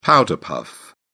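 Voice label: "powder-puff", British English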